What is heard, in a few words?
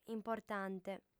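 "importante", Sardinian, female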